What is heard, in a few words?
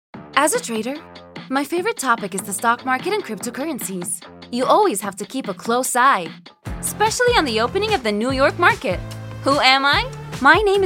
USA